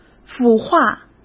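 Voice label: "腐化", Mandarin Chinese; chinese-flashcards; hsk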